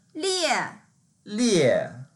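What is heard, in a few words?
"lie4", Mandarin Chinese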